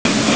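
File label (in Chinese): "shu4ye4", Mandarin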